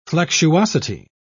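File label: "flexuosity", British English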